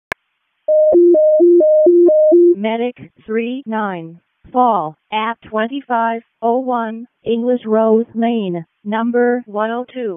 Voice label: EMS ALERT

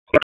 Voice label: Police Northwest District Tac 1

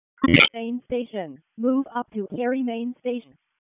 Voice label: EMS ALERT, p25; wake

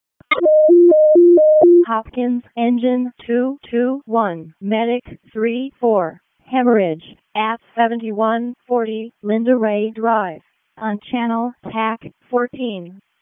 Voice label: EMS ALERT; p25

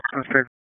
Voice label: Police Tac, p25; Raleigh; Southeast District; wake

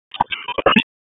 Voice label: Police Spec Ops Tac, p25; Raleigh; wake

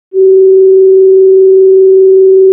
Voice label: RFD ALERT, p25; wake

